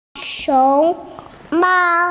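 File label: "panda", Mandarin, hearing-impaired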